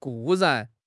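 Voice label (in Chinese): "固然", Chinese; HSK; HSK6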